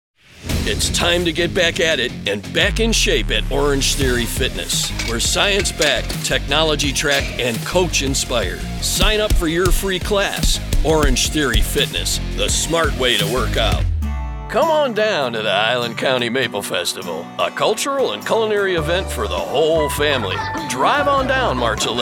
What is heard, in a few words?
commercial voiceover, male voiceover talent, voice acting; professional voiceover artist